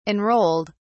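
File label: "enrolled", British English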